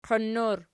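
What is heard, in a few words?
"qiin" plural, Archi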